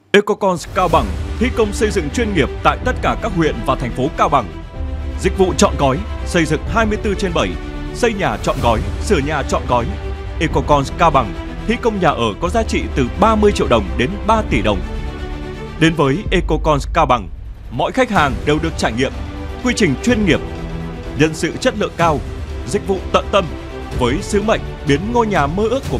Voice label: VOICE MC NAM MIEN BAC; ECOCONS CAO BANG

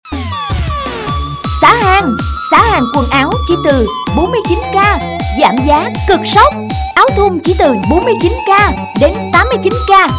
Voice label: Thu am quang cao Xa hang quan ao

